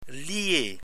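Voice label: "lier", French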